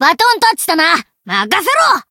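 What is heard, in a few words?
variable chant, Japanese; morgana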